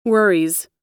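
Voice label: "worries", US English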